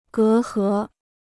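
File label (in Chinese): "隔阂" Chinese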